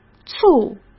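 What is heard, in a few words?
"醋", Mandarin Chinese; chinese flashcards; hsk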